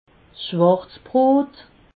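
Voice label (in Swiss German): "schwarzbrot", Alsatian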